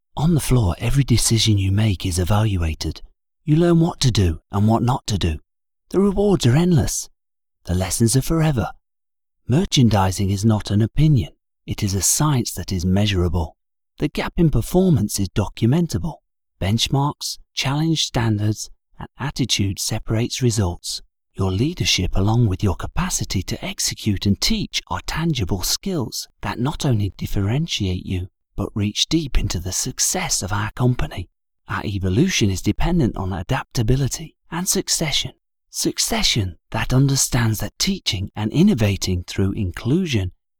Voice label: Corporate Culture Video